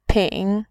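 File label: "pin", Mandarin, tone 3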